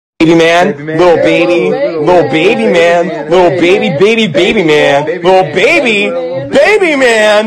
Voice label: baby man